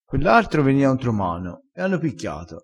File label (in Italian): "picchia", crespinese